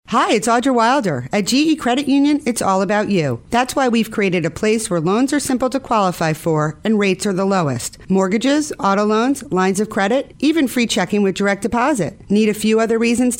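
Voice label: new radio commercial; wicc; webe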